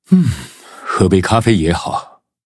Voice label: levelup zh-hant; Cookie Run